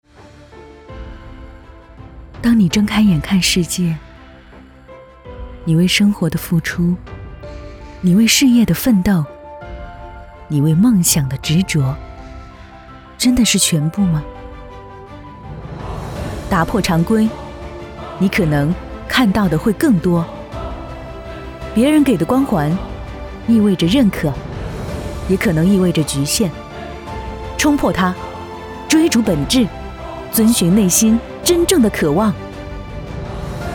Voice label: 女 微电影独白 情绪递进

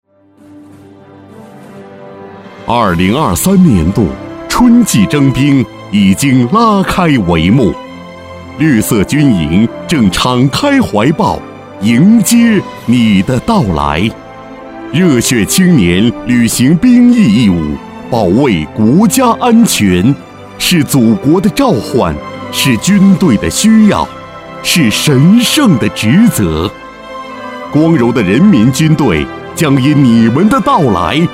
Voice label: 男, 感人征兵

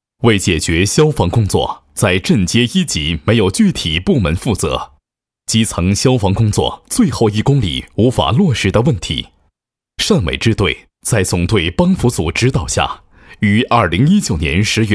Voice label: 男 汇报 消防所汇报片

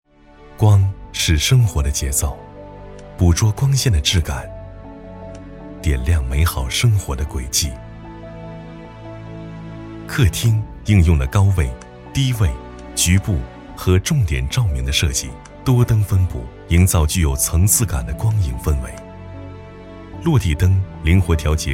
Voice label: 男 TVC; 雷士照明

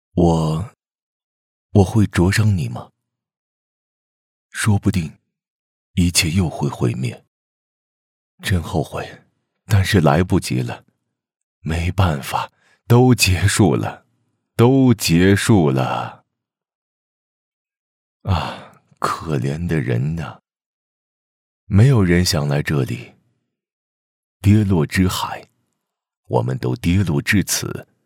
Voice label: 角色中年男样音

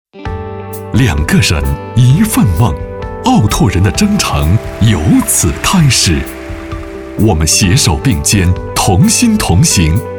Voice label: 男, 专题; 奥拓人

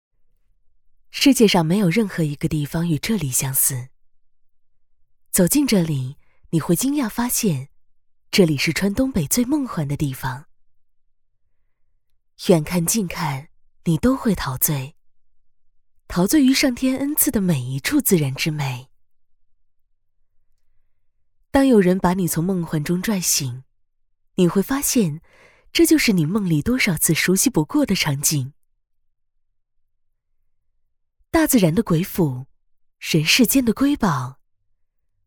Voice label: 女 旁白 讲述; 岭山

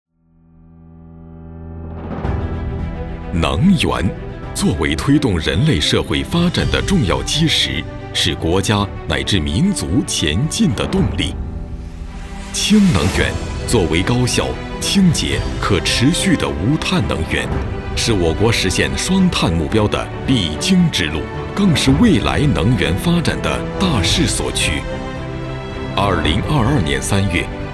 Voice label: 男 宣传片 能源类 磁性温润 浑厚大气

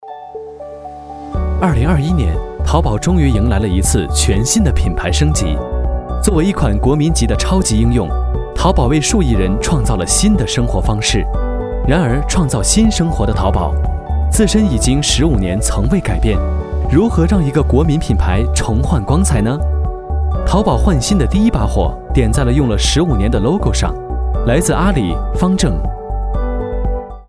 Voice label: 男 MG 科技

